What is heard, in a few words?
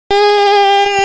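"ti1", Mandarin Chinese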